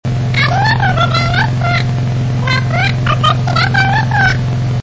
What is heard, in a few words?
sample high, voice